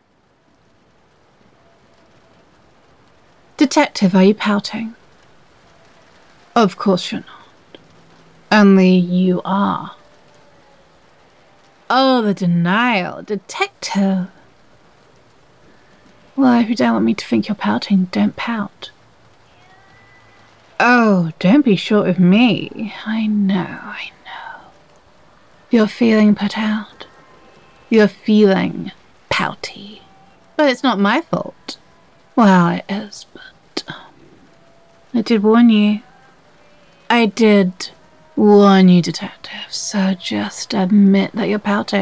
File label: Sultry, Noir Fantasy, Roleplay; Into the Arcane; Siren Roleplay; Private Detective Listener; Urban Fanta